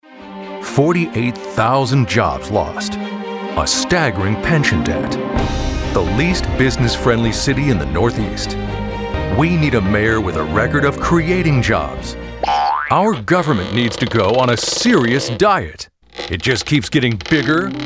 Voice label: Male Voice Over Talent Political Commercial

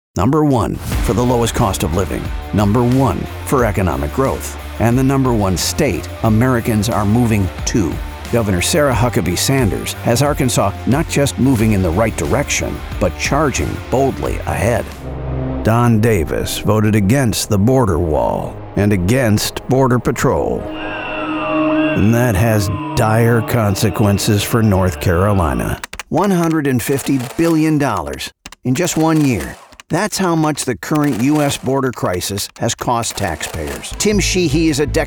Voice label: Male Voice Over Political Commercial

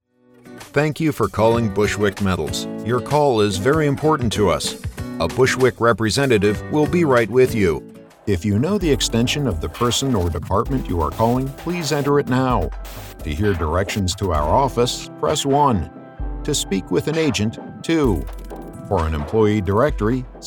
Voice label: Male Voice Over IVR Message On Hold; IVR MESSAGE ON HOLD FOR COMMERCIAL DEMO

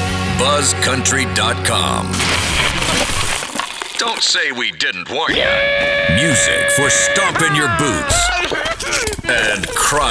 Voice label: Male Voice Over, Radio Imaging, Country

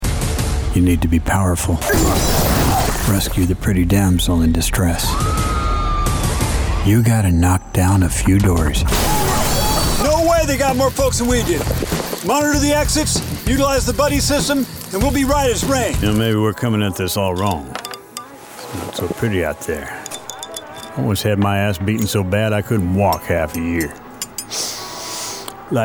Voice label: Male Voice Over Talent Animation Games

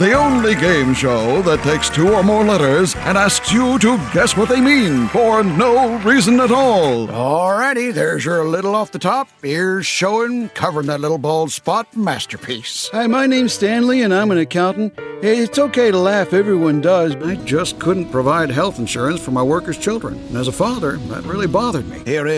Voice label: Male Voice Over Talents Character

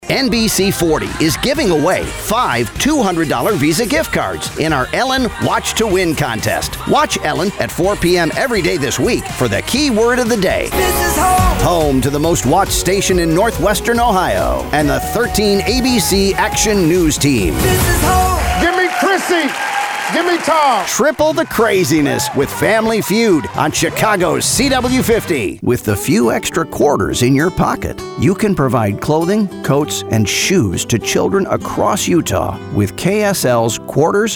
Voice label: Male Voice Over Talents TV Promo Affiliate Show

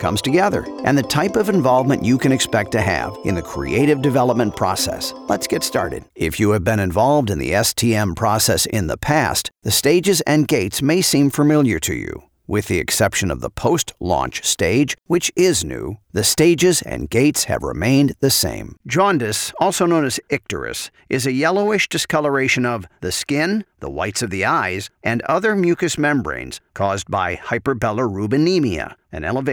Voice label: Male Voice Over Talents E Learning

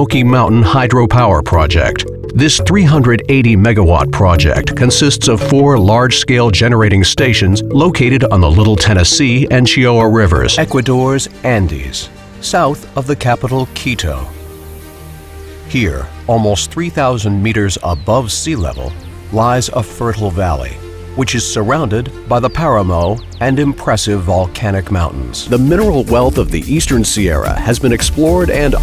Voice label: Male Voice Over Talents Narration

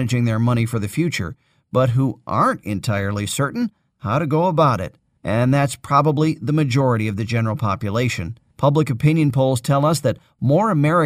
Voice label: Male Voice Over Talent Audiobook

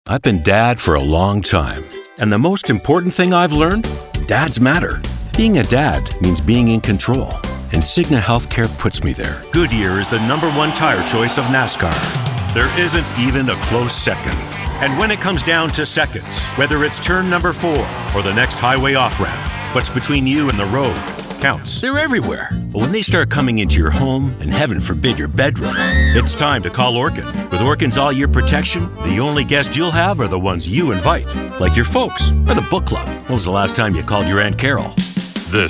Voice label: Male Voice Over Commercial

